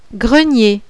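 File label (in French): "GRENIER", French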